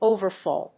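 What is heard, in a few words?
"overfall", English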